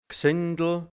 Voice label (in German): "Gsindl", Hianzisch, Mundart, Burgenland; Gesindel